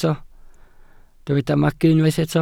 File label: "magu", Welsh